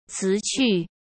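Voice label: "辞去", Mandarin Chinese; chinese flashcards; hsk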